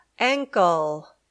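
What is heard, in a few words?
"ankle", English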